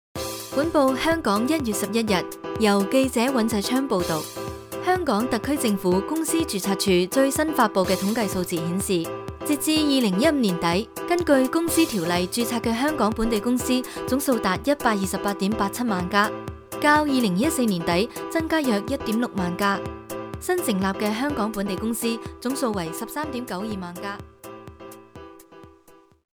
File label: news